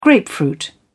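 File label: "grapefruit", British English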